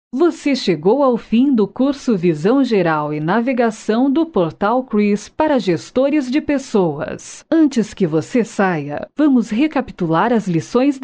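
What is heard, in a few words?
Portuguese Brazil, female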